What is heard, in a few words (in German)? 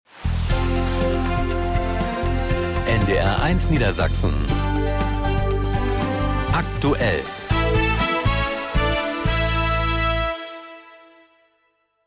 Nachrichten; NDR1